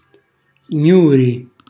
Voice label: "gnuri", Calabrese